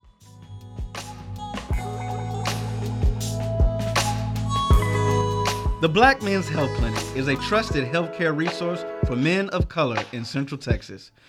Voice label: Male Voice; Black Mens Health Clinic; PSA; Community Partners